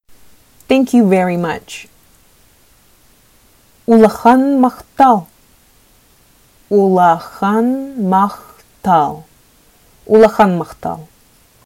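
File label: Sakha Thank you very much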